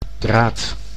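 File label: "draad", Dutch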